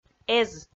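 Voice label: "эз", Komi, female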